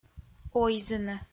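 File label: "ойзыны", Komi, female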